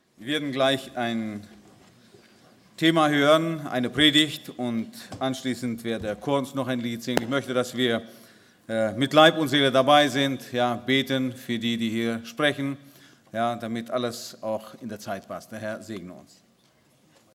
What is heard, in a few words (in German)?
Ansprache